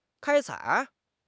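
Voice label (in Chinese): "开采", Chinese; HSK; HSK6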